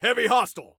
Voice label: Warn Context Heavy, Soldier Male; Soldier Male 02